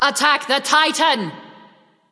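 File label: attack, male; smite; bellona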